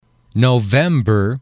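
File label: "november", English, male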